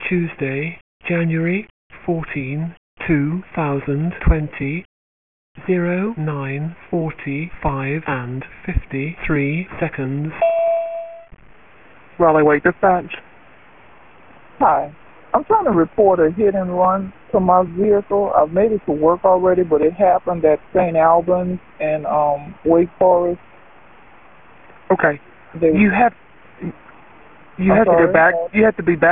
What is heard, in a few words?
911 call; St Albans and Wake Forest; RALEIGH